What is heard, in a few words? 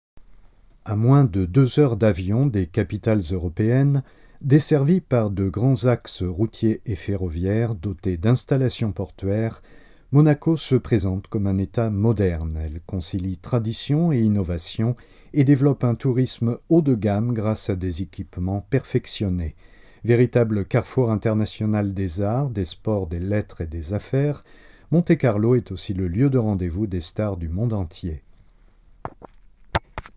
subdued